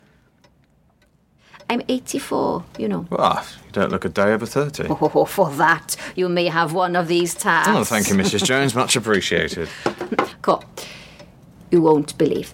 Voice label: audio drama